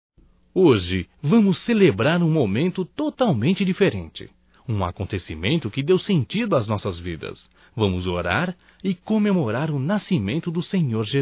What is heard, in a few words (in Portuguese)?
Natal Ano Novo Irma em Cristo (M); Telemensagens 2017